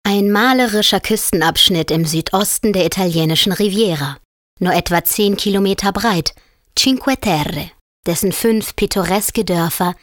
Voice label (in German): Kommentar, Freundlich